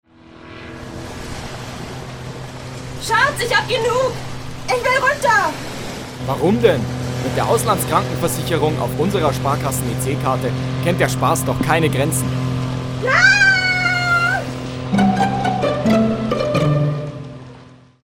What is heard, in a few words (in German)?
Werbung Reminder; Sparkasse